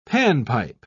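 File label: "panpipe", British English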